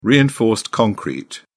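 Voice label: "reinforced concrete", British English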